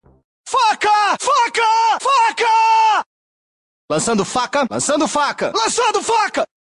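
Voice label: militia voices; mw2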